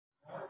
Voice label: Voice Note